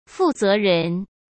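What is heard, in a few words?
"负责人", Mandarin Chinese; hsk; chinese-flashcards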